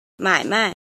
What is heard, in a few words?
"jin", Chinese